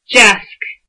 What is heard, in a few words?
desk, irish